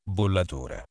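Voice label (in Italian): "bollatura", italiano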